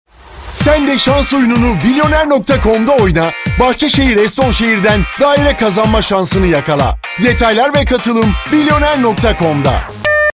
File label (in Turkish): reklam; bilyoner